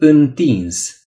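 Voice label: "spread", Romanian; gcompris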